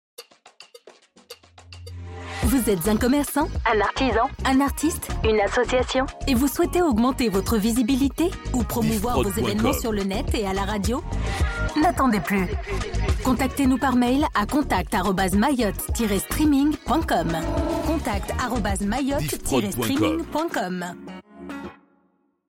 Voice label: Spot; Regie Mayotte Streaming

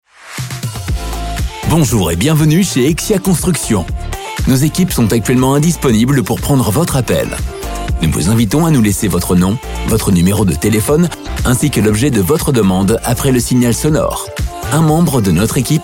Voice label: Repondeur; Exxia Construction

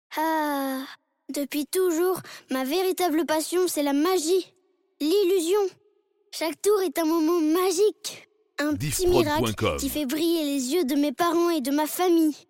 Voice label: Drop VO Enfant Pilote Helico

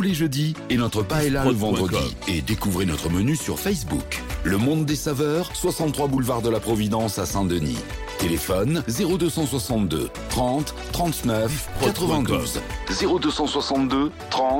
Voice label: Spot Restaurant, publicite; First Reunion Repik; Monde des saveurs

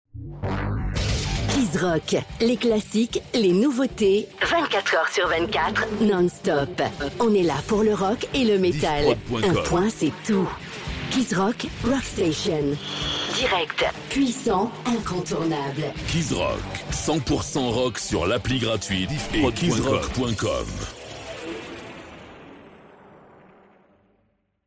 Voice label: Promo Duo; Kizrock; un point c'est tout

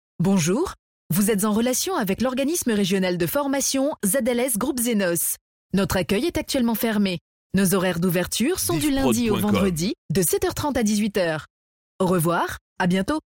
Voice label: Audiotel Fermeture Voix, telephonie svi; ZLS Groupe Zenos

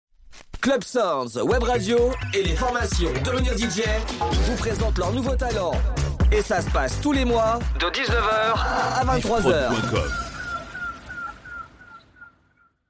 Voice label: Jingle Formations Devenir DJ; Club Soundz

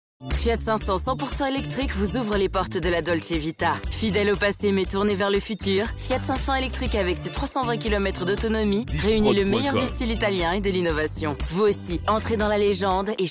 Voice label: Spot 500 Elec, publicite; Fiat

